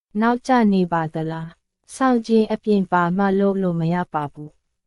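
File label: Myanmar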